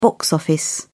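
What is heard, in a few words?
"box office", English, British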